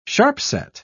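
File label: "sharp-set", British English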